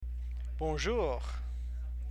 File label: greeting, french